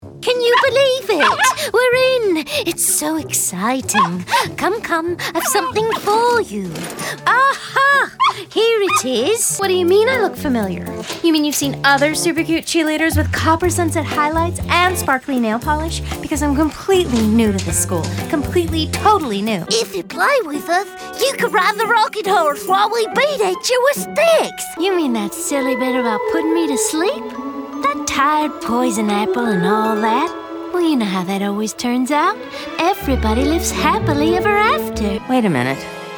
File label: ANIMATION, Voiceover; SAG - AFTRA